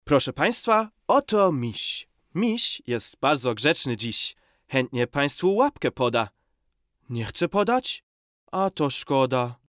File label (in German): polnisch; LOFT VOICES